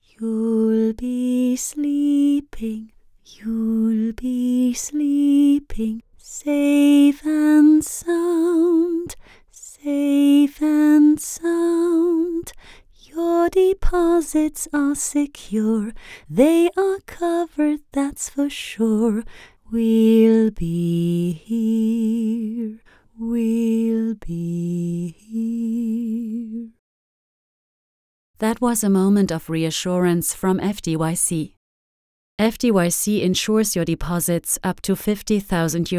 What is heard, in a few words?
commercial English voice sample